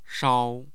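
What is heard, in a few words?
"shao1", Mandarin Chinese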